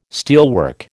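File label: "steelwork", American English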